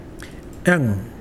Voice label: Mokilese air